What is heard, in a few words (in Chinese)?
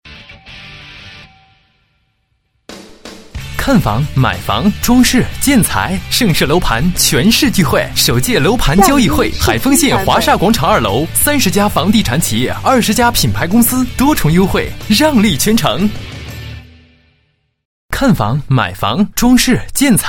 男 房地产广告 楼盘销售广告